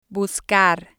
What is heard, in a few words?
"buscar", Spanish